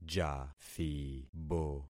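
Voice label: "jathibo", concatenated syllables; Affixing experiment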